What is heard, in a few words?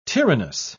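"tyrannous", British English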